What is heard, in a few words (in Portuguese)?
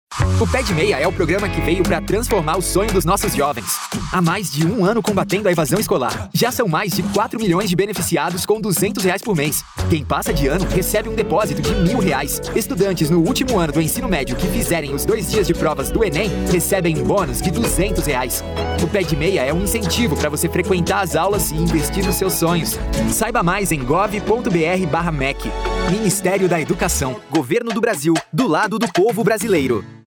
spot; pe de meia